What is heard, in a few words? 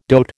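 "dote", American English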